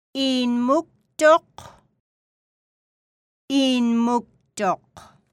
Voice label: Inuit; Phonetics